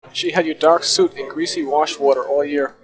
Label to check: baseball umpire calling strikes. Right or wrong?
Wrong